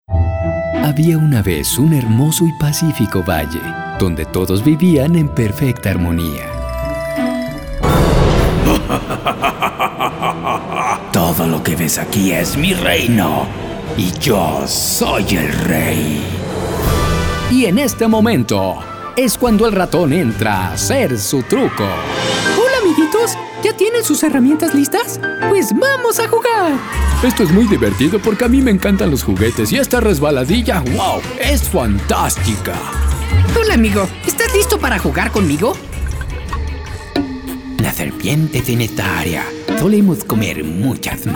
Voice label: Male Spanish Personajes